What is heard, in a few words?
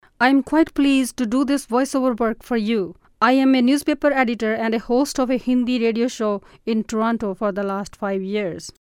Indian Accent